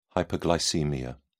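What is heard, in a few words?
"hyperglycaemia", British English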